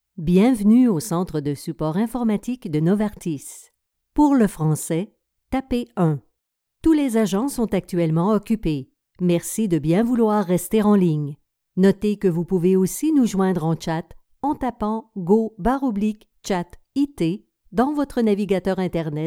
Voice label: IVR; NOVARTIS